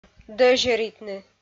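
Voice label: "дӧзьӧритны", Komi, female; kpv audio dict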